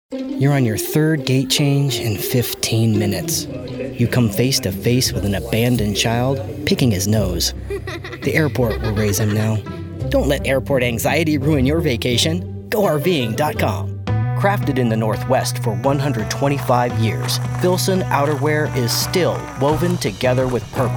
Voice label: Commercial Demo